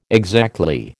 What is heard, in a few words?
"exactly", American